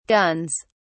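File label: "guns", British English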